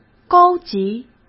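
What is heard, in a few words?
"高级", Mandarin Chinese; chinese-flashcards; hsk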